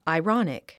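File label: "ironic", English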